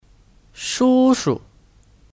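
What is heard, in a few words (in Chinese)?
"叔叔", Mandarin Chinese; Shūshu: Paman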